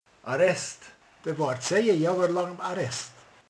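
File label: "börtön", Hungarian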